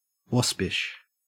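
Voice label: "waspish", Australian English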